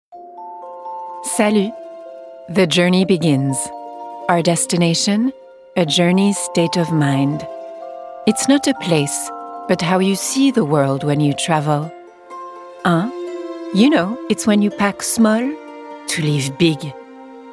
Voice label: french accent, English (French Accent), Female, 20s-30s, voiceover; European